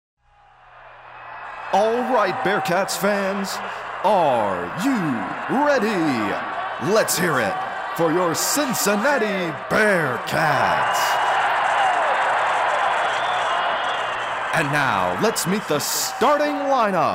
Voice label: Announcer